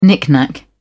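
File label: "knick knack", English, British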